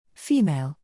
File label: female